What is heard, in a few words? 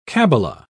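"cabbala", British English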